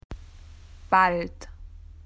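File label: "soon", Australian English; PictureDictionary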